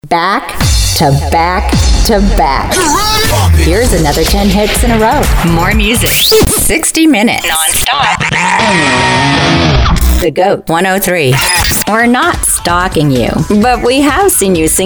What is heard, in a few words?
Radio Imaging